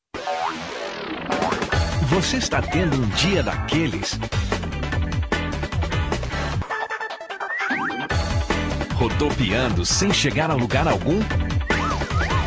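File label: Portuguese male voice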